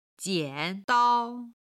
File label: "jian3dao1", Chinese